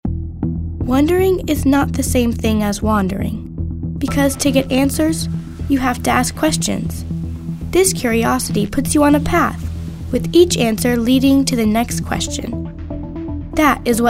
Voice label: thoughtful

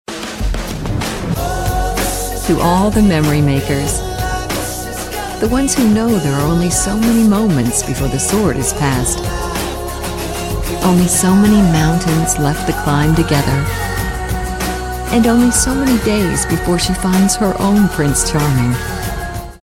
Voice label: Smooth

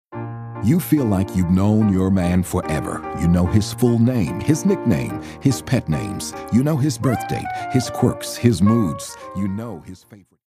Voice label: friendly

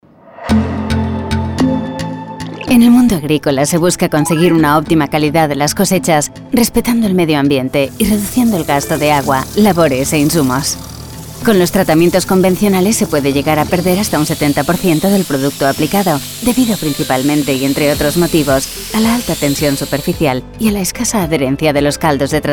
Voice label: Corporate Animation

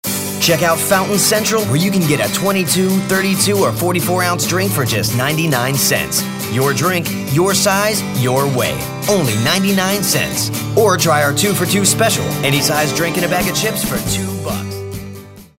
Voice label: Upbeat

